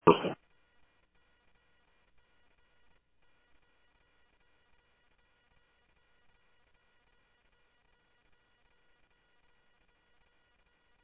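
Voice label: Mesa Police Department, scanner audio; MESA PD 1 Fiesta; Mesa Simulcast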